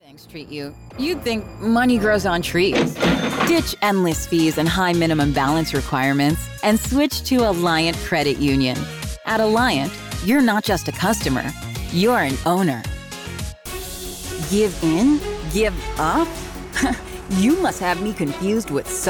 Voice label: American Female